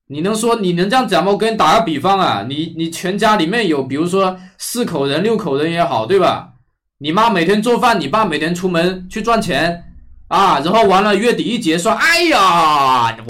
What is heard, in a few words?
Mandarin; Breaking Down Mandarin; MiniMax Speech